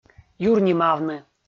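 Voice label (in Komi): "юрнимавны" Komi, male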